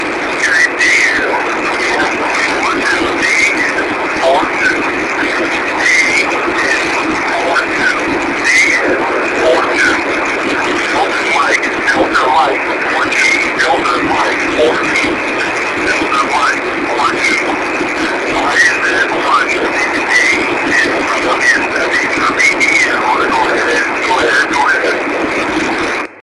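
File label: ssb, 1296 EME Recordings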